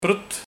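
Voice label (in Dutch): "Prut", dialect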